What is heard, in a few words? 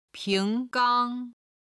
"ping2 gang1", Chinese